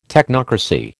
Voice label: "technocracy", American